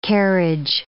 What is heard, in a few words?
"carriage", English